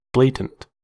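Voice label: "blatant", English, American accent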